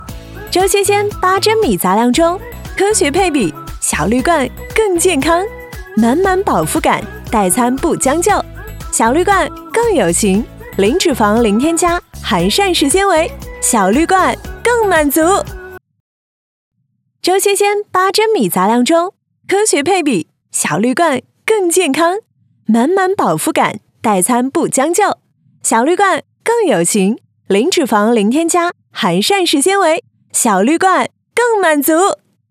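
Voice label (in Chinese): 广告年轻活力自然杂粮粥; 粥纤纤杂粮粥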